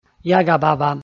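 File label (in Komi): "яга-баба", Komi, male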